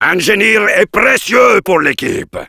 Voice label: Heavy thanks for the teleporter, fr